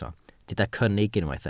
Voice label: "cynnig", welsh

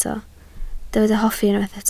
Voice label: "hoffi", Welsh

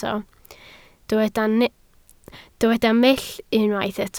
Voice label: "mull", Welsh

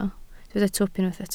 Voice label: "twp", Welsh

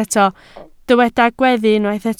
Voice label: "gweddu", Welsh

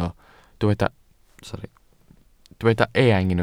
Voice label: "eang", welsh

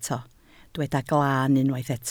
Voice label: "glaan", Welsh

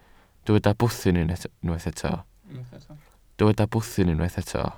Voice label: "bwthyn", Welsh

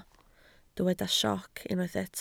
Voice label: "sioc", Welsh

